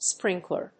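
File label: "sprinkler", English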